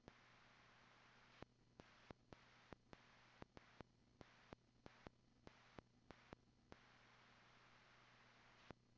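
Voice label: P25 dispatch radio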